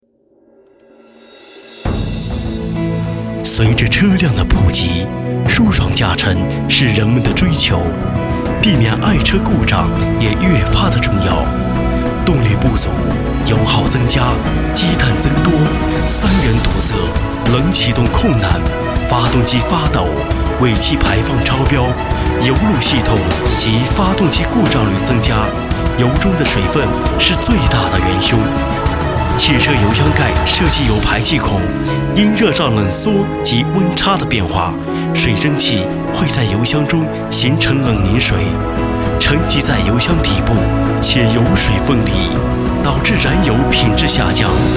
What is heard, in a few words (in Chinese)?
汽车广告